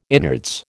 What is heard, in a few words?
"innards", American